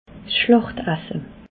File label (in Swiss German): "schlachtasse", alsace